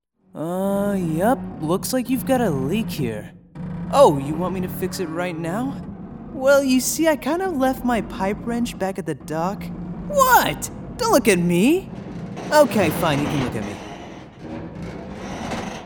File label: Animation